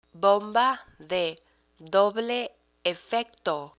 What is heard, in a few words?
"bomba de doble efecto", Spanish